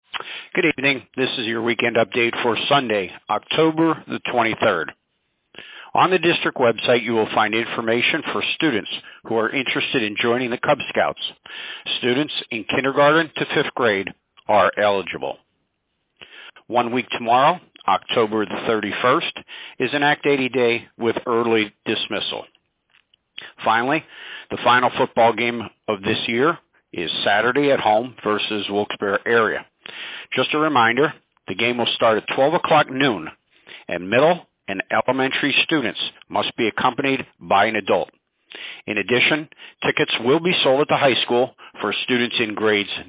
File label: Robocall